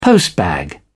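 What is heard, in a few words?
"postbag", British English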